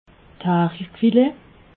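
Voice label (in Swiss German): "hari filet", Alsatian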